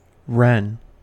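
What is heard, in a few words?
"wren", US English; pronunciations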